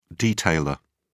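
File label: "detailer", British English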